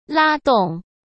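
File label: "拉动", Mandarin Chinese; hsk; chinese-flashcards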